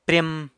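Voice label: "pryem", San Lucas Quiaviní Zapotec; cousin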